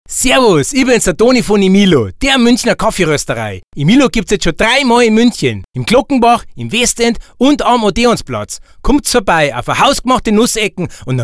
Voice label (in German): Werbespot Kaffee; EMILO KAFFEE